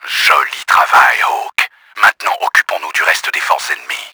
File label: MOP UP, french; Battle Engine Aquila; XBOX